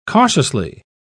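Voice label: "cautiously", British English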